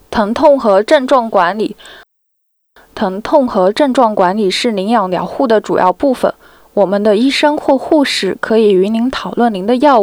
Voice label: Palliative Care Mandarin; Pain and symptoms management